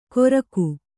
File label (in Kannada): "ಗೊರಕು", Kannada